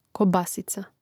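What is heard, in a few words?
"kobasica", Croatian; Mrežnik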